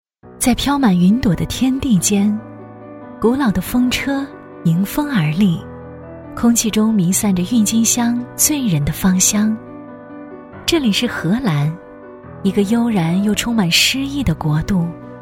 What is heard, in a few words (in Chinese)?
女 广告; 金领冠